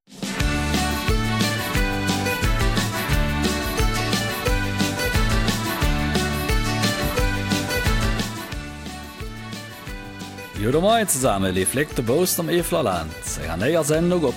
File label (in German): Mundartsendung